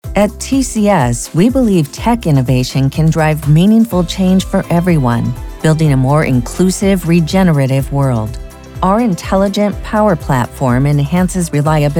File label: spot; TCS